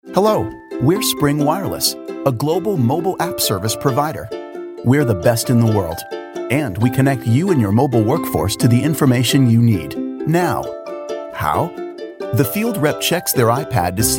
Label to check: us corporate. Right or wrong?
Right